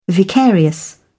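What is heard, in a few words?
"vicarious", British English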